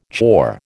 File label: "chore", American